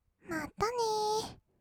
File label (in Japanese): "まったねー", kawaii voice; ASMR; 1200 kawaii voice!!; 音ゲー